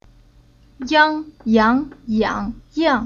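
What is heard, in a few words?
"Yang", Chinese syllable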